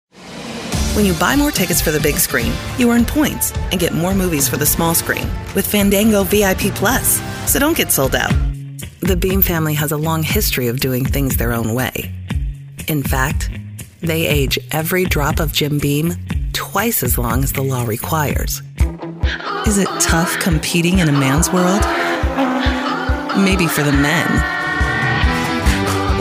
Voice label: Commercial Female; Commercial Voiceover, TV and Radio

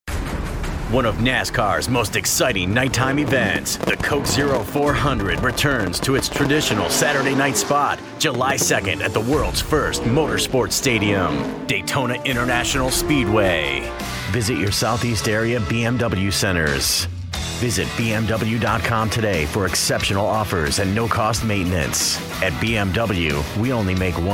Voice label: Commercial Male; commercial voiceover

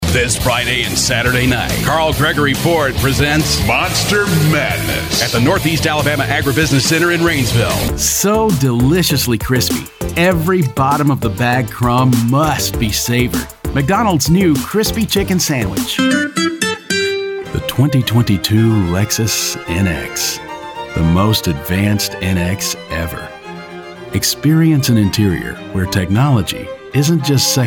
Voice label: Commercial Male; commercial voiceover

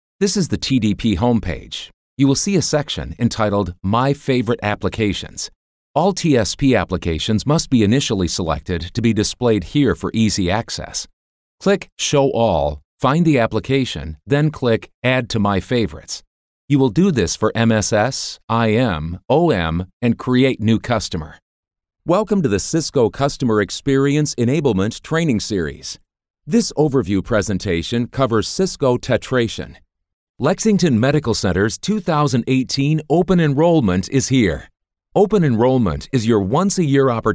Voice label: eLearning Male; Narration, eLearning

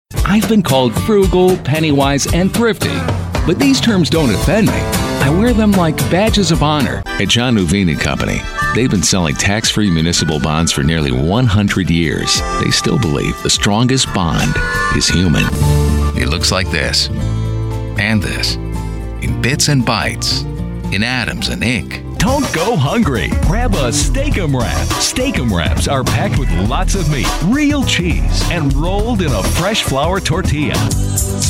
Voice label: Commercial Male; Commercial Voiceover, TV and Radio

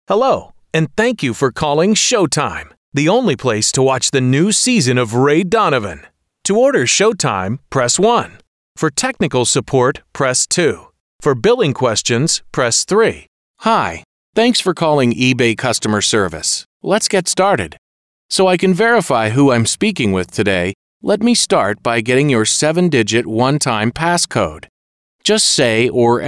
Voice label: IVR Male; Narration

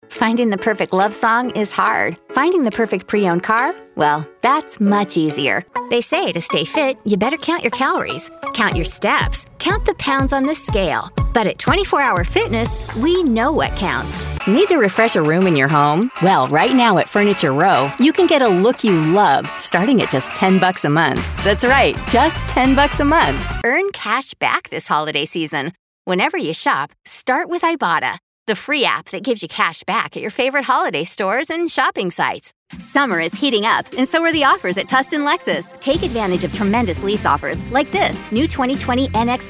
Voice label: Commercial fun easy going

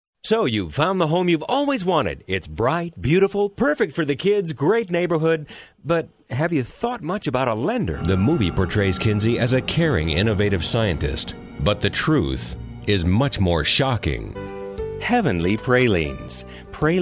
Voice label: Commercial Male

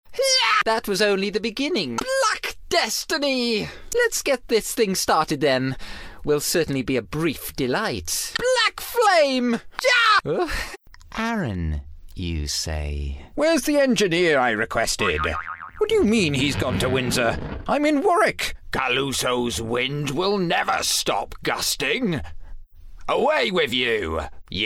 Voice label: Characters British Male

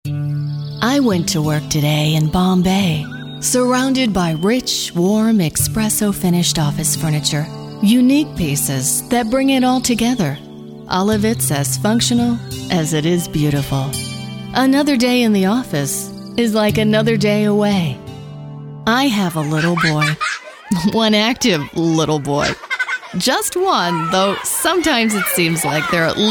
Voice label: Commercial Female

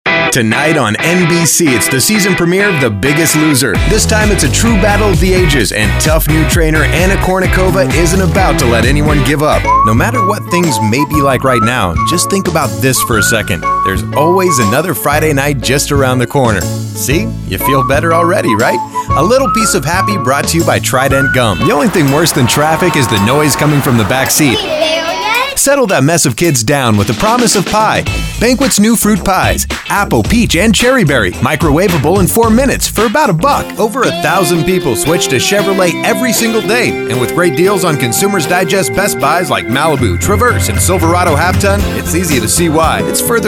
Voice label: commercial, voiceover

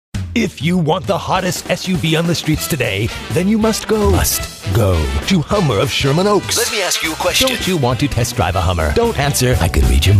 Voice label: Auto Dealer Racer, commercial voiceover

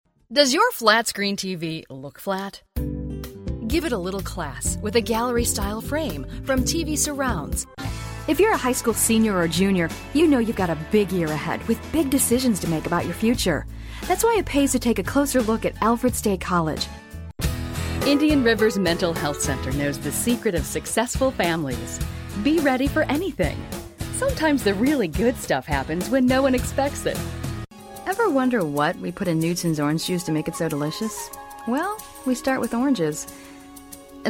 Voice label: Commercial Female; commercial voiceover

